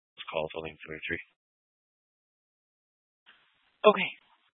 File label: Dispatch, scanner